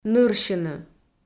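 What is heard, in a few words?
"нырсьыны", Komi, female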